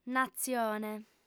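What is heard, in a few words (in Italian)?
"natzione", lingua sarda, fem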